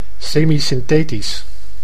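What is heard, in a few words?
"semisynthetisch", Dutch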